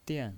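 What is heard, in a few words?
"dian" Mandarin Chinese, fourth tone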